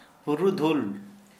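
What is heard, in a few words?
gray haired person, Santali, male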